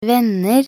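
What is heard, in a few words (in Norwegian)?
"venner", Norwegian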